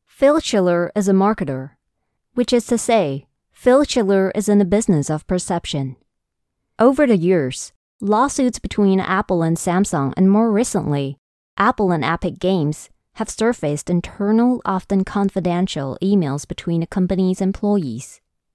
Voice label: english documentaries